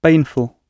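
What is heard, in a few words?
"baneful", English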